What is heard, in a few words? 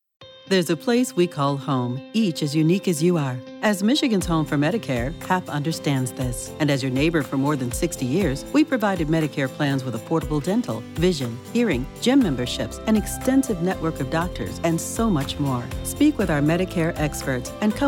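Commercial, Engaging, Authentic, Warm Female; Health Alliance Plan HAP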